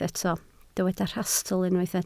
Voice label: "rhastl", Welsh